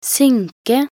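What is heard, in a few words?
"synke", Norwegian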